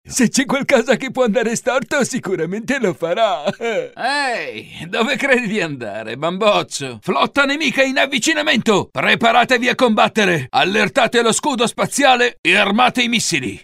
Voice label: italian animation